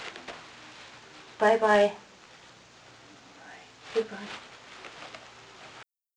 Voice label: Bye Whisper; evp